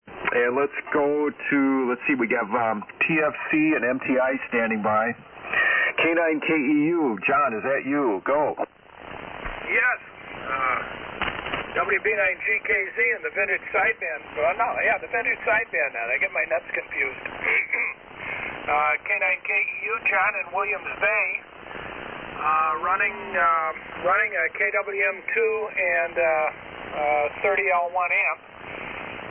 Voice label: Collins KWM-2 & 30L-1; Williams Bay, WI.

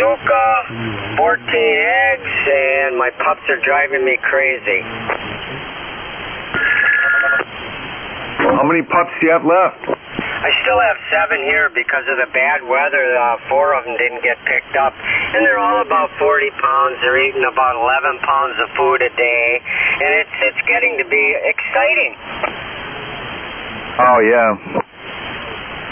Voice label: Yaesu FT-101EE & D-104 Mic AL-80B; Hustisford, Wi.

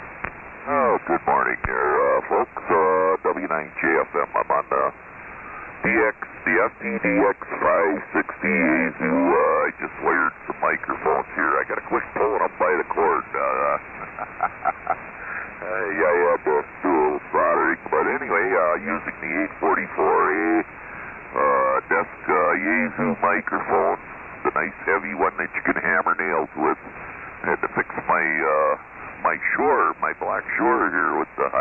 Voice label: Yaesu FTDX-560 & YD-844 Mic; Algoma, Wi.

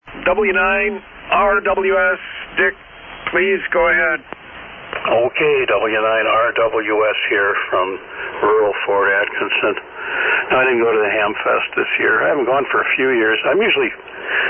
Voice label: Ten-Tec Omni C AL-80B Amp & D-104 Mic; Fort Atkinson,Wi.

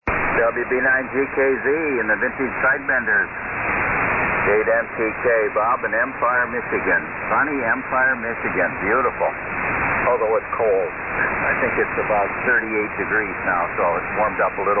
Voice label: Collins 32S-1 75S-1 and SB-200 Amp; Empire,MI.

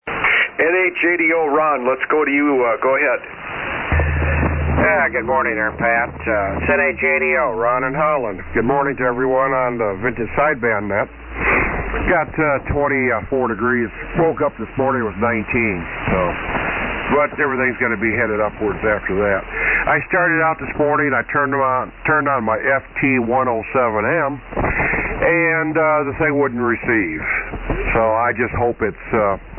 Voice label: Yaesu FT-101B D-104 Mic & Alpha 76A Amp; Holland, Mi.

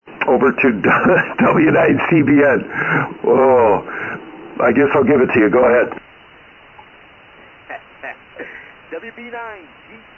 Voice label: Kenwood TS-520S & MC-50 Mic; Milwaukee, Wi.

